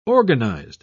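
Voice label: "organised", British English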